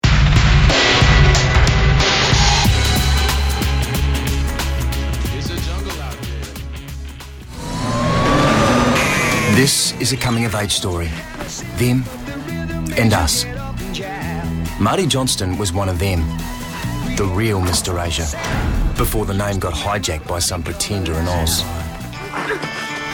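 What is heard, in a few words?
nz narration, New Zealand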